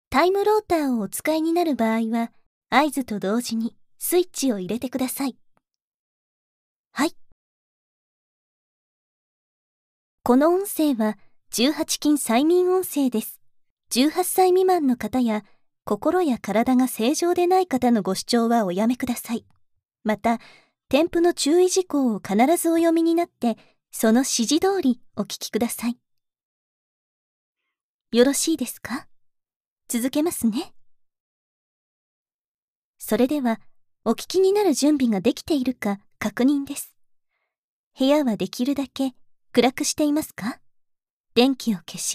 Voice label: 催眠導入

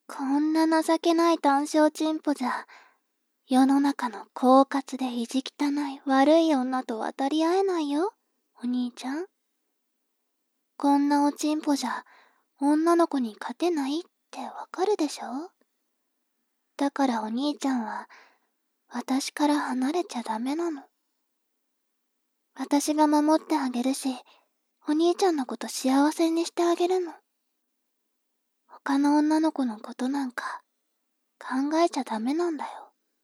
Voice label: 妹 台詞

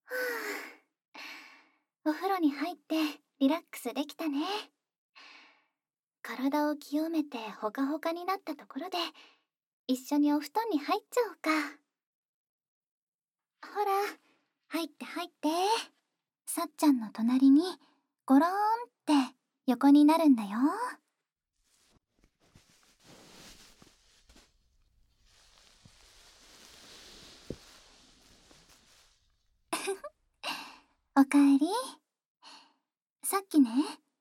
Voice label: 添い寝, ASMR

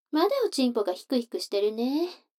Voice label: 女の子台詞, ASMR

